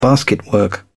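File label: "basketwork", British English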